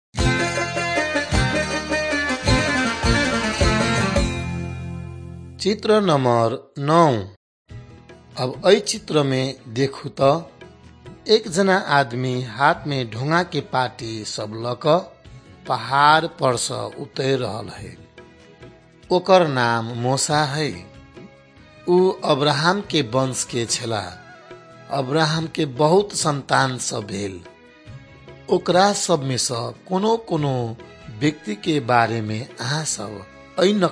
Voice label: maithili thetiya

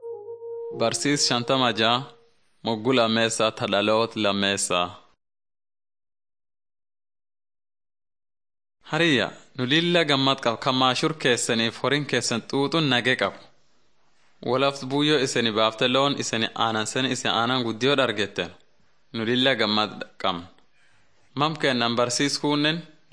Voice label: teaching tape orma; bible lessons 53 56

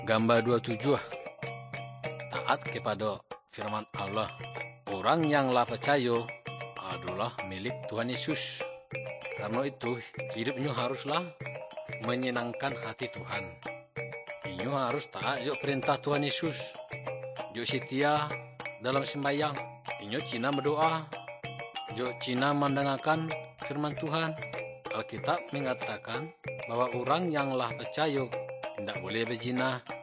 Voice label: good news minangkabau muko muko; kaba ilo

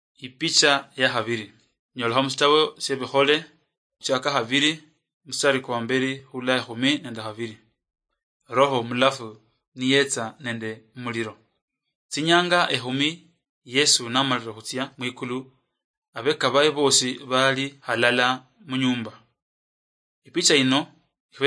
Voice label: acts of the holy spirit luyia marachi, drama

